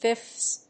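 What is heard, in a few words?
"fifths", English; fɪfθs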